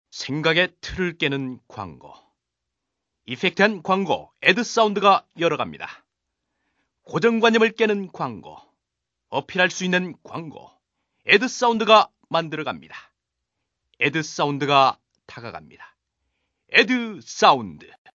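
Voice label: Korean male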